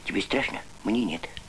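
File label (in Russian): "strashno", Russian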